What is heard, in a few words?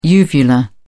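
"uvula", British English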